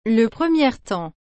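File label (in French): "le première temps", French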